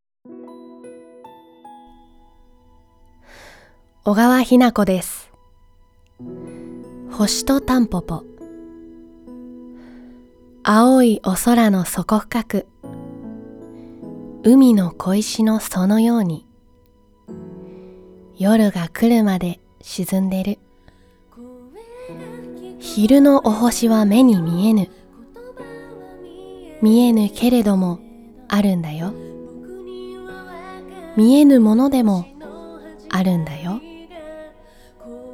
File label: poem